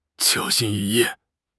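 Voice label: "小心翼翼", Mandarin Chinese; HSK6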